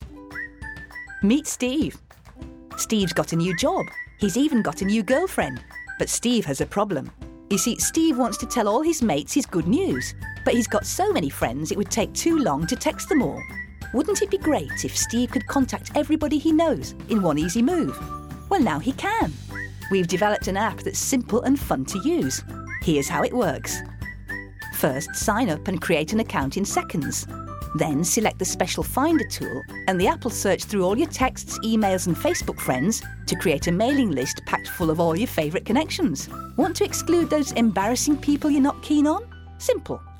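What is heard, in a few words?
British English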